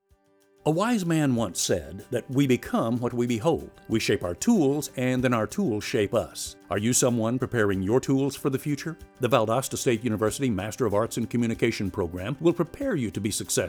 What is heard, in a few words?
Radio Commercial; Valdosta State University M.A. Communications Radio Commercial